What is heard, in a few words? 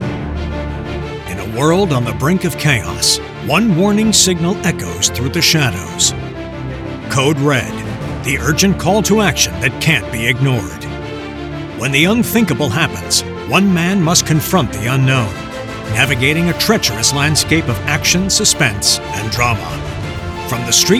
Audiobook Trailer